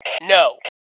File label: Global No, Female; T2 Female 04